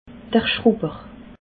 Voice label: "schrubber", alsace

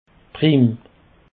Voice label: "prim", Alsace

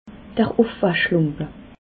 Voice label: "ufwaschlumpe", alsace

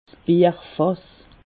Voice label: "bierfass", alsace

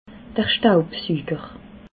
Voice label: "staubsuger", alsace

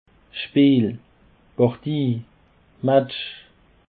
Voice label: "speel", Alsace

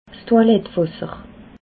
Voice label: "toiletwasser", alsace

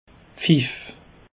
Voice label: "pfiff", Alsace

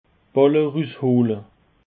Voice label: "ballerusshohle", Alsace

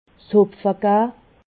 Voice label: "hopfegah", alsace

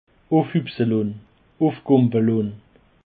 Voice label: "ufhupselon", Alsace

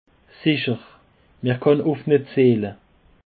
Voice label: "sicher", Alsace

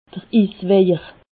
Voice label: "iswejer", alsace

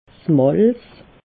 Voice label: "malz", alsace

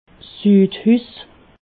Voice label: "sudhus", alsace

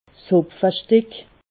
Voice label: "hopfestick", alsace

